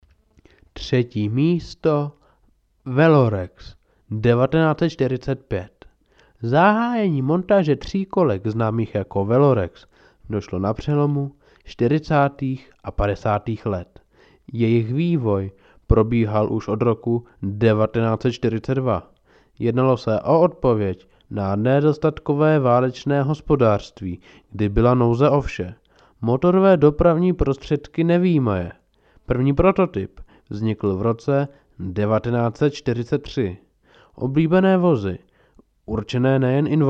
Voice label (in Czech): profesionalni muzsky hlas